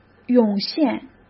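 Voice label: "涌现", Mandarin Chinese; hsk; chinese-flashcards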